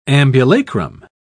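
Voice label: "ambulacrum", English, British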